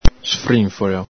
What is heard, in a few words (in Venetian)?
"sfrinfolo", Veneto